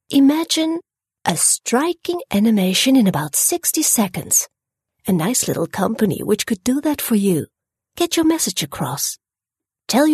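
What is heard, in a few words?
Dutch, female, English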